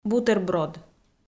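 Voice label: "бутерброд", Komi, female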